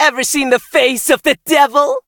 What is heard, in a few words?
battle, en; Cookie Run